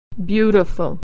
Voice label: y "beautiful", pronunciation

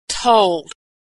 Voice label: verb "told", pronunciation

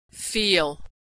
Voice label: "feel", American English; verb; Consonant Sound

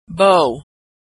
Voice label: "bow", pronunciation; Homophones; beau bow

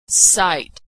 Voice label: "sight", pronunciation; Homophones

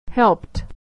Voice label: "helped", pronunciation

